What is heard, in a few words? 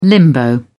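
"limbo", British English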